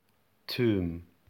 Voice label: "tomb", English